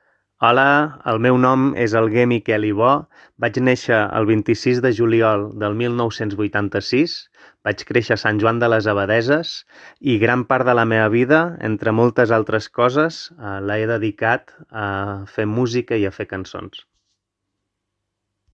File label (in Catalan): Alguer veu